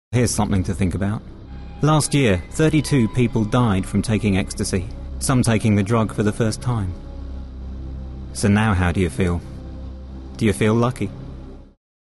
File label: british ominous